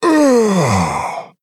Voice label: Male Quilboar Death